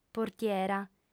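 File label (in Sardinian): "portiera", female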